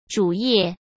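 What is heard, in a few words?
"主页", Mandarin Chinese; hsk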